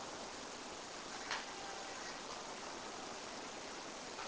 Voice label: distress; wail